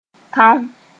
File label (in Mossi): "tão", moore